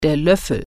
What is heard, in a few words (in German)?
"spoon", German